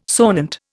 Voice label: "sonant", Indian